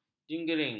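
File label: "jinggering", Malay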